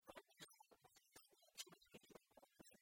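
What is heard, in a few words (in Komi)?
пӧль-пӧчлӧн уджныс йитчӧма видз-му овмӧсӧн, Komi, female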